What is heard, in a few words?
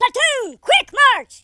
hurry, Drill Sergeant; speech